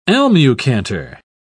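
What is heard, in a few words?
"almucantar", British English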